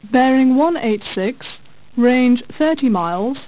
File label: woman